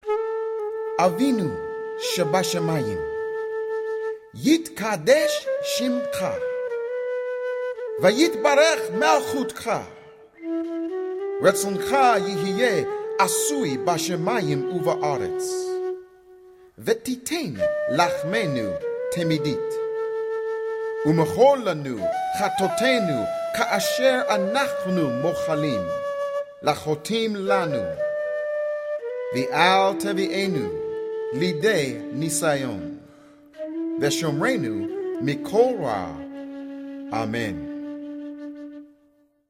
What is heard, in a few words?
Hebrew Version with Music